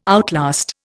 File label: "outlast", Indian